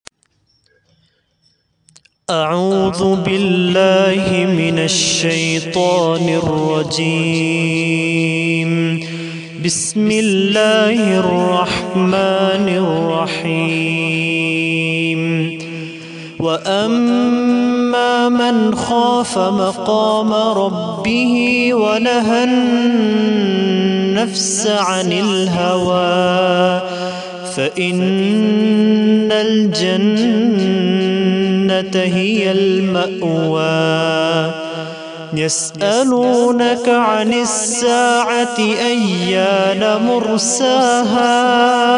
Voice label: Recitation